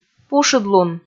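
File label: "пушыдлун", Komi, female; kpv audio dict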